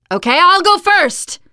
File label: follow me, teengirl, voice; player; survivor